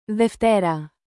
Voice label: "deftera", greek